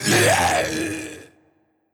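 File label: Skeleton Death voice; Skell Death V O4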